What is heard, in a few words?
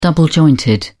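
"double jointed", British English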